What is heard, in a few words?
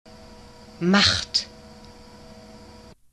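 "macht", German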